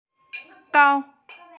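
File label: "gao1", Mandarin Chinese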